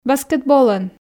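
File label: "баскетболӧн", Komi, female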